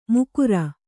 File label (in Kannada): "ಮುಕುರ", Kannada